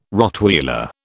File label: "Rottweiler", British